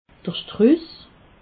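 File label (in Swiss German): "struss", Haut-Rhin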